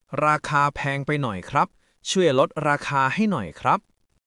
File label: Thai, basic Thai phrases